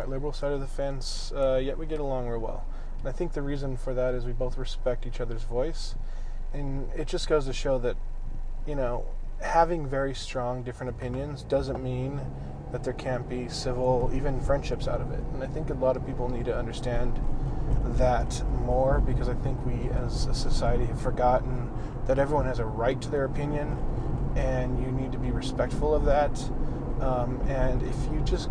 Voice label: political talk

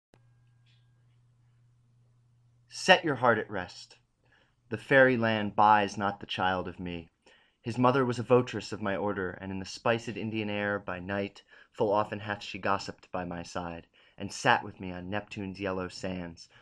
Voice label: recitation example

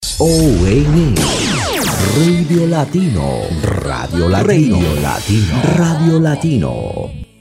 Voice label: radio latino drop

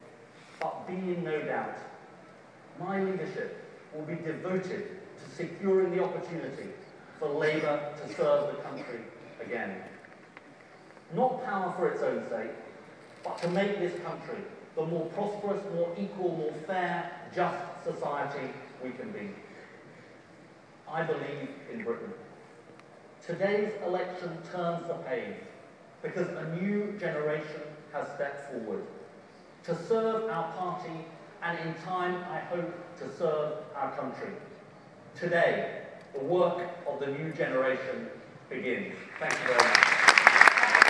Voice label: acceptance speech; miliband; on being labour's new leader

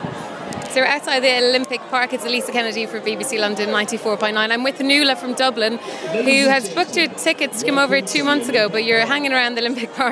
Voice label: frustrated irish

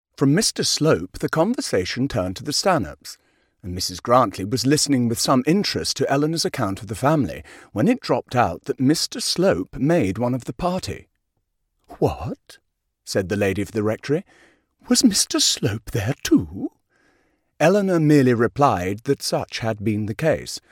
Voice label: narration; barchester towers

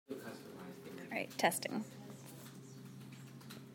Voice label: audiobook test

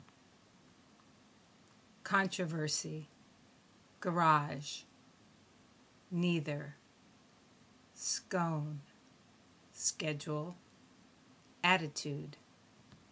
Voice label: Chicopee MA USA female

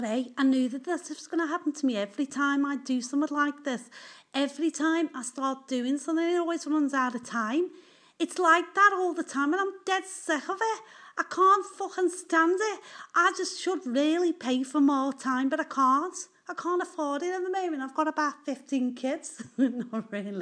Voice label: accents and voices

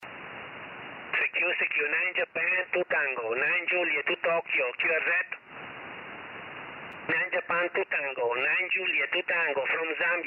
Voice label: 6m SSB

